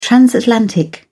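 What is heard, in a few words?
"transatlantic", British English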